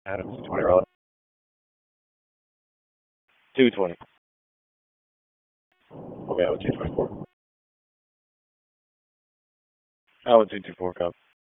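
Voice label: Police Northeast District Disp, radio; Raleigh; wake; p25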